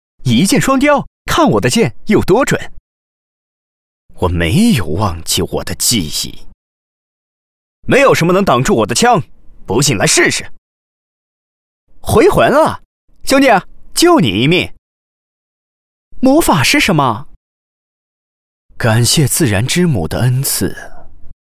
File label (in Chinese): 男 游戏样音 年轻